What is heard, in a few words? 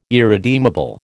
"irredeemable", American